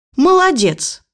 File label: "well done" voice